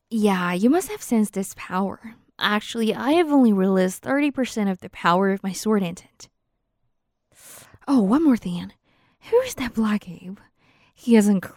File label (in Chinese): 英女 仙侠类角色